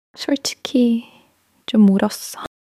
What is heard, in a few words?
crying, monologue